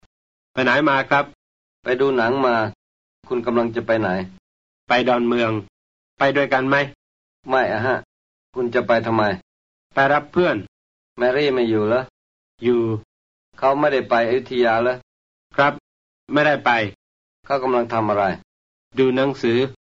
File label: Basic Dialogue, thai